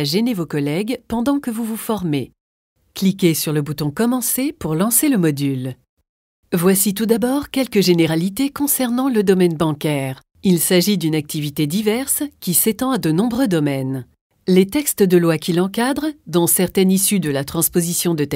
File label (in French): E-learning; LCL